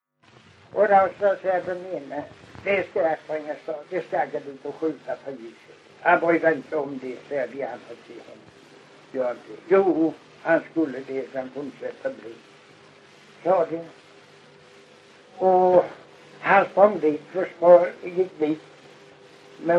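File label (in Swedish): Kristianopel, Swedish dialect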